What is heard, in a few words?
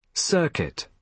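"circuit", British English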